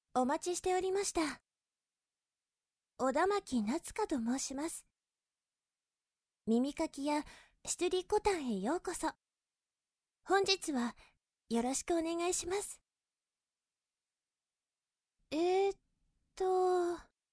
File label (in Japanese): ボイスのみ, ASMR